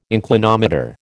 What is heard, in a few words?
"inclinometer", American